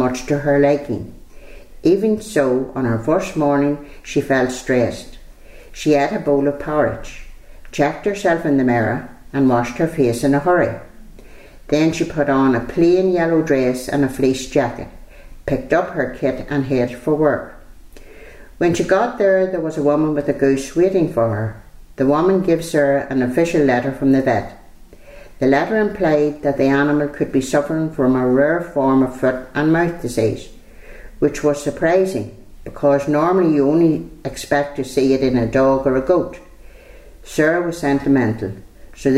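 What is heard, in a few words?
passage, Irish English, The North of Ireland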